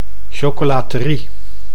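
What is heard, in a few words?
"chocolaterie", Dutch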